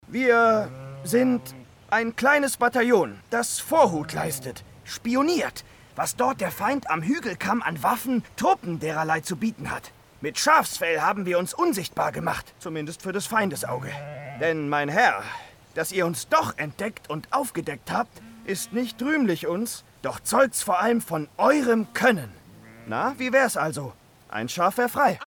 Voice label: Hörspiel Schaf; Don Quichotte

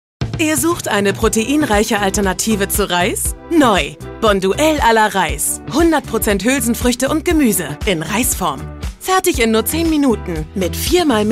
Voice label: Werbung Reis; Bonduelle

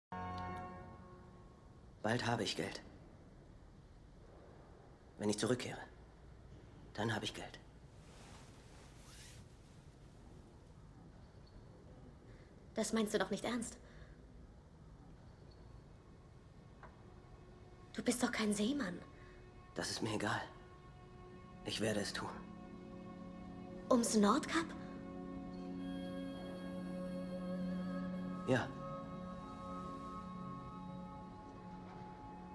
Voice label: synchro

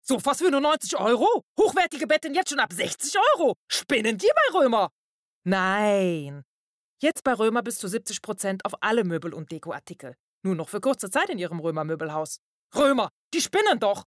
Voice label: Moebelhaus Rheinisch

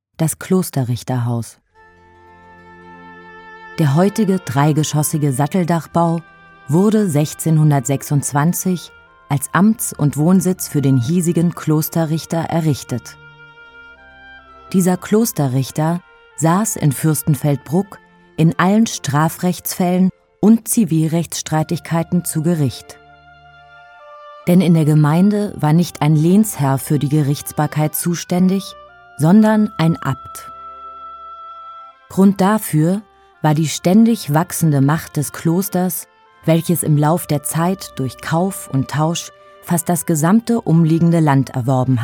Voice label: AUDIOGUIDE; Klosterrichterhaus

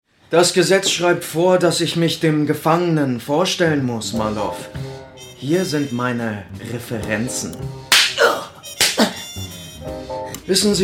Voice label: Hoerspiel Folterszene; Marlov in Tschernobyl; LOFT VOICES

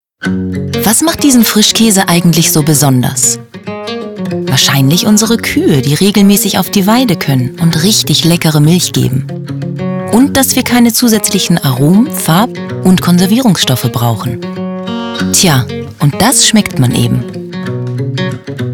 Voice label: Werbung Frischkaese

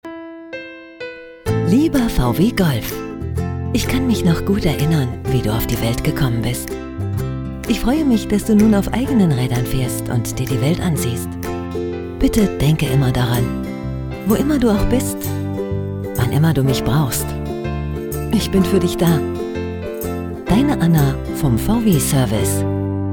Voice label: TV Werbung; LOFT VOICES

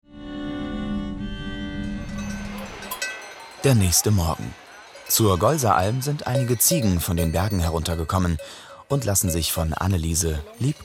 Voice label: TV Off serioes; hr fernsehen; LOFT VOICES

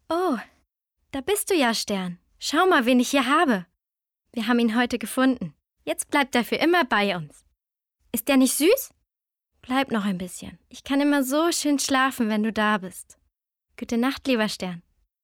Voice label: Erzaehlung

